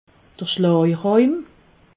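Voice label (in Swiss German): "schlag roim", Alsatian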